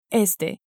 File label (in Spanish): "este", Spanish